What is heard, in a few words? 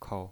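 "kou", neutral tone, pinyin voice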